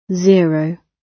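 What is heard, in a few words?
"zero", British English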